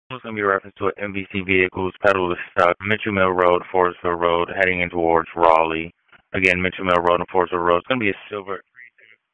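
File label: Sheriff's Office Dispatch 1, p25; wake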